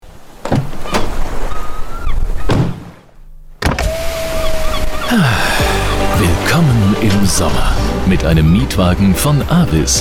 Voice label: Werbung Auto; LOFT VOICES

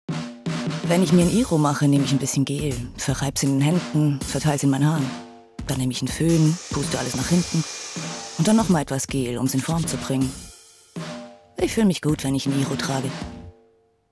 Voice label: funk tv spot; axe

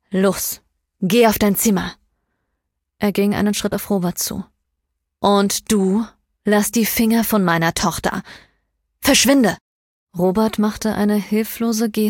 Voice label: Hoerbuch Szene; Parted Hearts

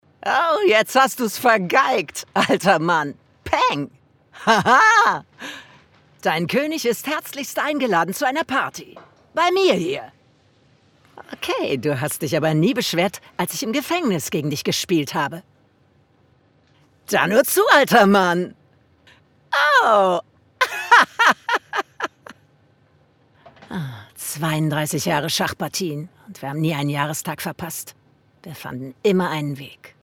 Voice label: Synchron Monolog, Lip-Sync (Synchron), German; voice

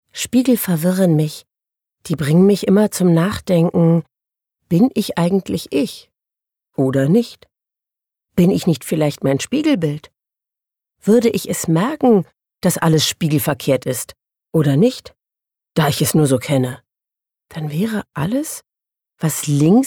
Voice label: Innerer Monolog

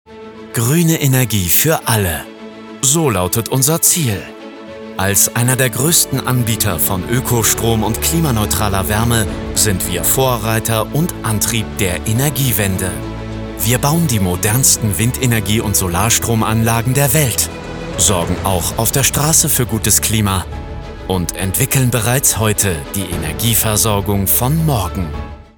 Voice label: Imagefilm Gruene Energie

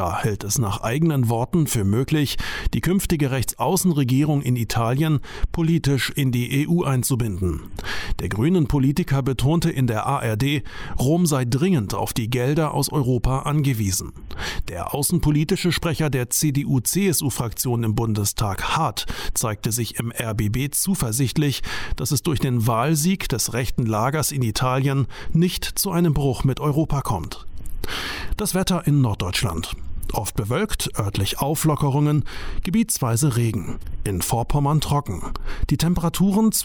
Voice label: Nachrichten; LOFT VOICES

